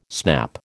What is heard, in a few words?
"snap", American